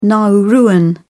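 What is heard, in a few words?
"nauruan", British English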